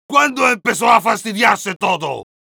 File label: jeers, es; Heavy